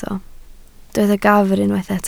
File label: "gafr", Welsh